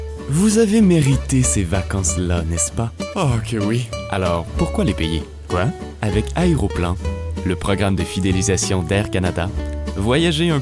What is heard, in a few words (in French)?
demo pub